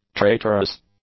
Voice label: "traitorous", English; adjectives